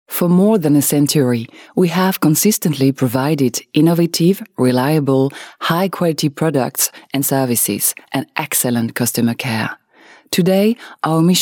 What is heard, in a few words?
English Corporate